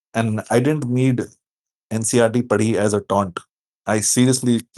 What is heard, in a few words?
voice message; Oxidising agent prediction